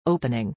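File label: "opening", English pronunciation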